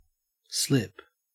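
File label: "slip", Australian English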